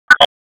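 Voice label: Police Northwest District Tactical 1, p25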